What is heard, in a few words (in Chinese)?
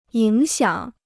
"影响", Chinese